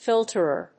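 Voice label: "filterer", English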